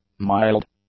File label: "mild", english; adjectives